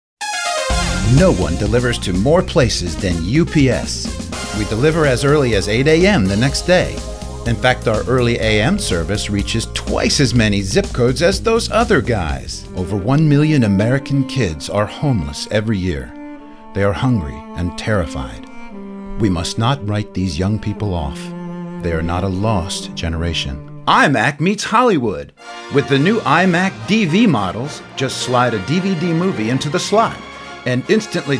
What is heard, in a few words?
Commercial